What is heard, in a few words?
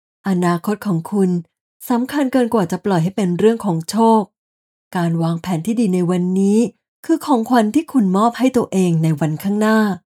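Ads Insurance, Radio Commercials, Female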